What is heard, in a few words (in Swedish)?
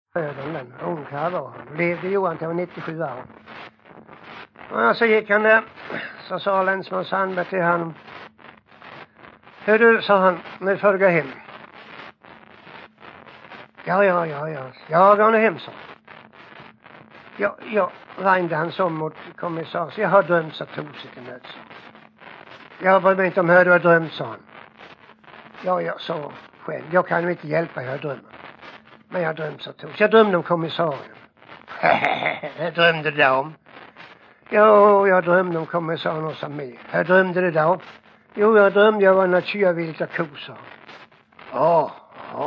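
Jämshög Blekinge, dialekter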